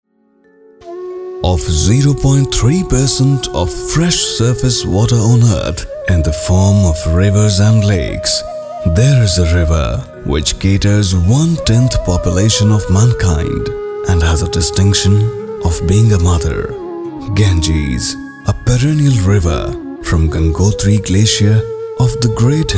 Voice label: English documentary